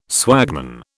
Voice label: "swagman", British